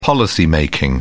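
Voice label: "policy-making", British English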